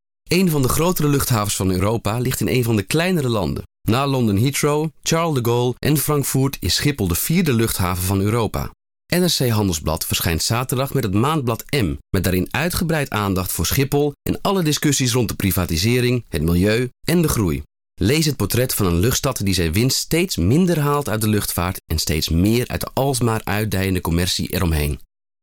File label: softsell; NRC